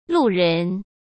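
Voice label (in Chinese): "路人", Mandarin Chinese; hsk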